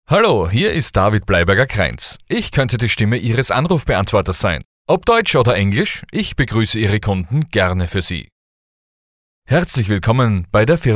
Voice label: Sprecher Telefonansagen

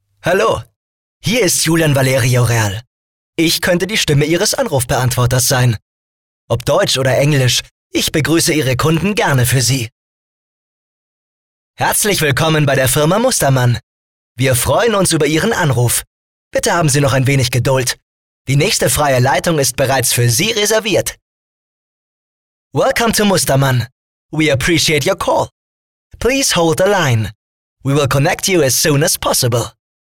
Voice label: Telefonansagen

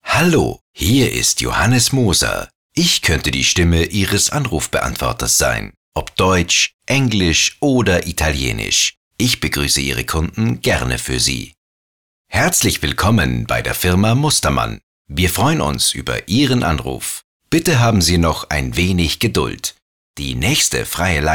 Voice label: telefonansagen

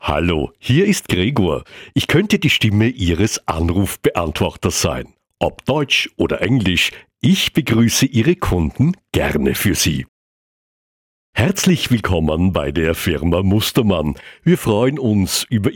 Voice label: Telefonschleifen

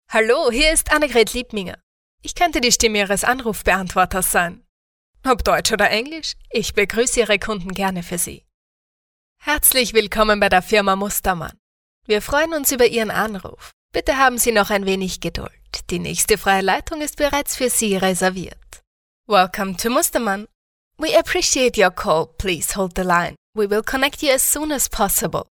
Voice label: Sprecher Telefonansagen

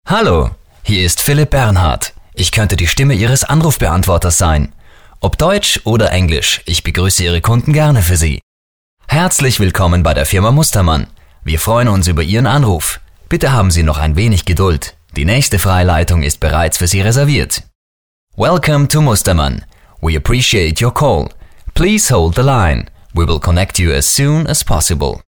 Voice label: Sprecher Telefonansagen

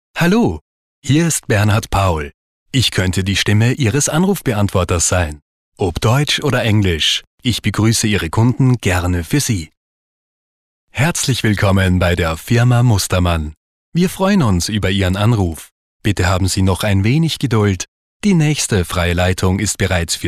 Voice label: Sprecher Telefonansagen

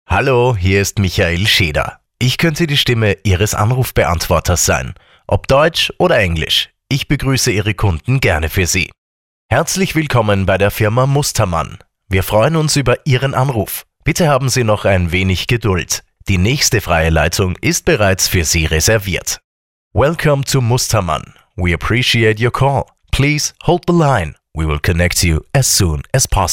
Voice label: Telefonansagen

